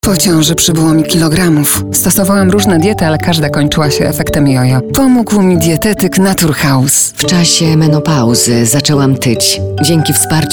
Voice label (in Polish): spot reklamowy; naturhouse